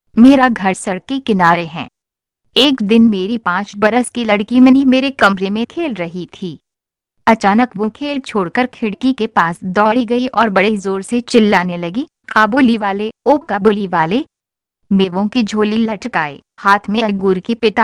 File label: jaws hindi sample